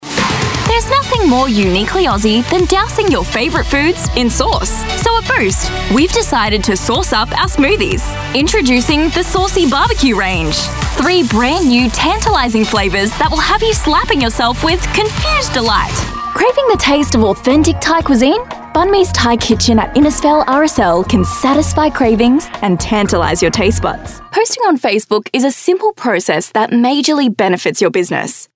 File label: female talent retail